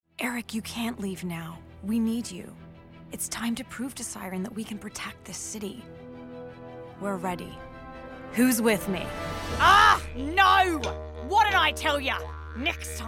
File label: ANIMATION VO; DEMO REEL